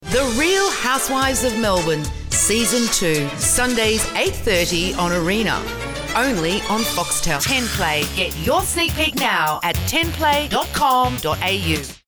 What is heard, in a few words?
Promo